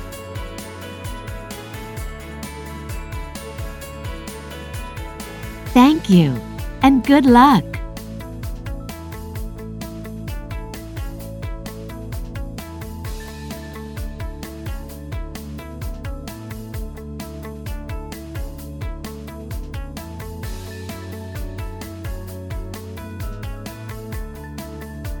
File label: English US F e Learning; american